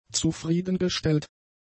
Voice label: "zufriedengestellt", German; adjectives

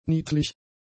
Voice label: "niedlich", German; adjectives

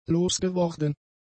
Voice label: "losgeworden", German; adjectives

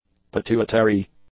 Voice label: "pituitary", english; adjectives

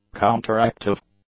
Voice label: "counteractive", English; adjectives

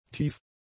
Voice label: "tief", German; adjectives

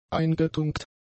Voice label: "eingetunkt", German; adjectives

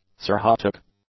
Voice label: "cirrhotic", English; adjectives

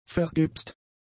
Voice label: "vergipst", German; adjectives

